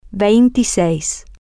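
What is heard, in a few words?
Spanish, twenty six, female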